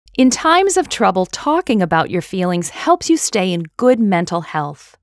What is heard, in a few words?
Mental Health Awareness Female; NIIT; Global Mental Health